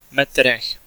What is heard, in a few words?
Meters, Czech male